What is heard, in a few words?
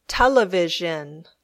"television", English